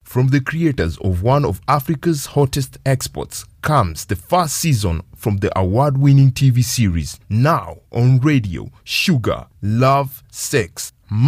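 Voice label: English Afrikaans Male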